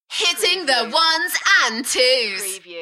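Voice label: British female Hitting the ones and twos Enthusiastic, voice drop; beanstalk audio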